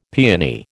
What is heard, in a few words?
"peony", American